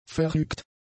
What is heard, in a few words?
"vernügt", German; adjectives